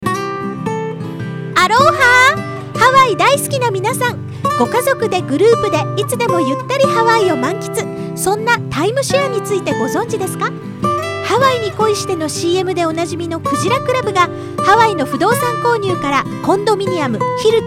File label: Radio ad